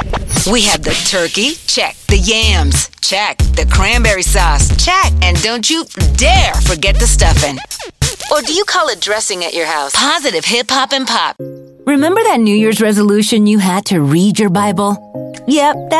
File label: Christian Hip Hop and Pop Radio Imaging